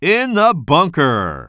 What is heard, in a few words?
commentator